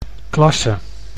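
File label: "klasse", Dutch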